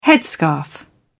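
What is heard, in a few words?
"headscarf", British English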